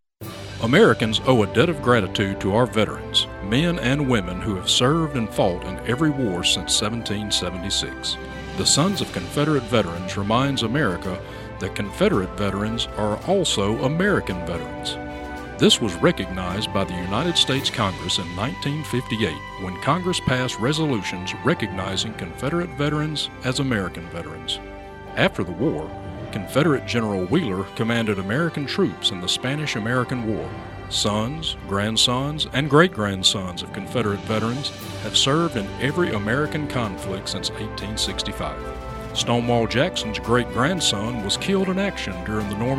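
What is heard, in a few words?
Radio Advertisements; CONFEDERATE SPOT; Radio Free Dixie Advertisements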